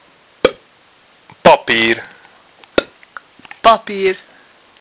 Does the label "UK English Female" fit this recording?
No